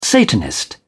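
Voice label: "satanist", British English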